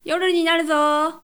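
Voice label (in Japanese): 女性 yoru ni naruzo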